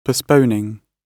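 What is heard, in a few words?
"postponing", British English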